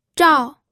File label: "zhao4", pinyin